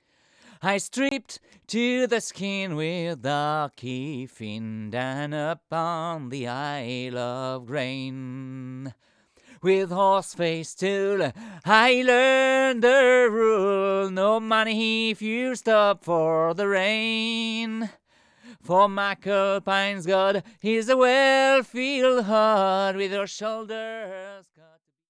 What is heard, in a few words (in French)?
voix c414; cakewalk sonar8